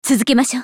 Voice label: ファイター女･選択

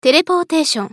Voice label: ウィザード女; テレポーテーション

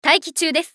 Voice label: ソルジャー女 選択; リボルドウェソルジャー

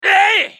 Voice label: ソルジャー男 攻撃短; リボルドウェソルジャー

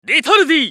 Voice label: ウィザード男; リタルディ

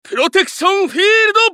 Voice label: ウィザード男 プロテクションフィールド

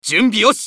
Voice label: 男･選択; マスケッティア

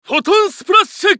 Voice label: ウィザード男 フォトンスプラッシュ

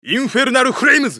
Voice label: 男･インフェルナルフレイムズ; ウォーロック

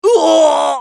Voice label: ソルジャー男 ダウン; リボルドウェソルジャー

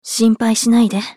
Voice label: スカウト女 リサシテーション

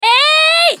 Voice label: ソルジャー女 攻撃長; リボルドウェソルジャー

